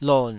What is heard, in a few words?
"lon3", Taiwanese syllable